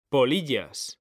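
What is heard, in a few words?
"polillas", Spanish, male; mekanta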